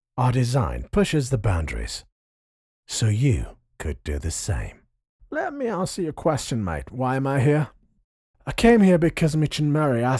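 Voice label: Turkish, male, English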